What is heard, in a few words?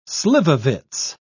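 "slivovitz", British English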